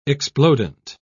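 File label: "explodent", English, British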